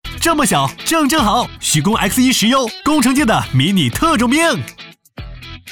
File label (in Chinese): 男 激情广告